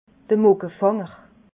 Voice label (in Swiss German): "muckefanger", alsace